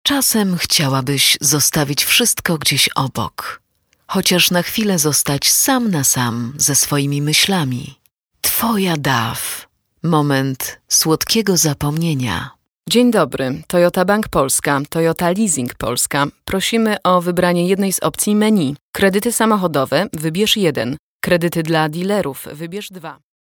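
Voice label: Polish commercial soft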